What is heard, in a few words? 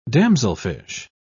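"damselfish", British English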